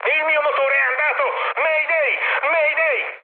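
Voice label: Italian damaged engine mood high, speech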